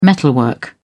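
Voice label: "metalwork", English, British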